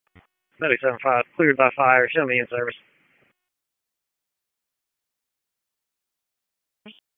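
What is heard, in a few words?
Wake County EMS Dispatch, p25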